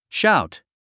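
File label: "shout", English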